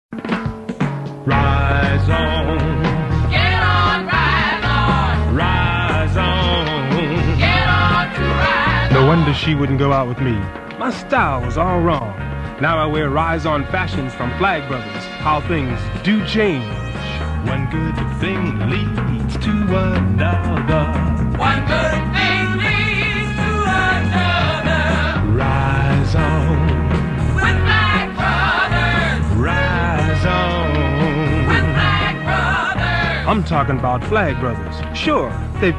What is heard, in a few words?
Commercial; Flagg Brothers